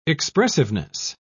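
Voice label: "expressiveness", British English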